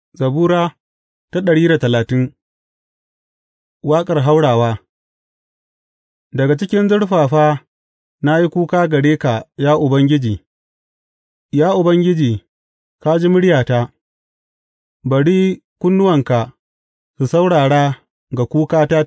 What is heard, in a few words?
hausa bible drama, psalms; hausa bsn nt drama